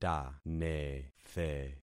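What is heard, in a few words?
"tanethe", concatenated syllables; Affixing experiment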